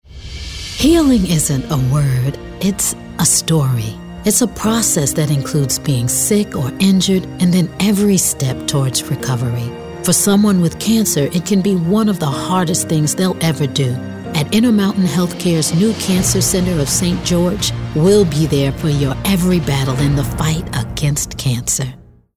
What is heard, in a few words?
Healing Warm, young adult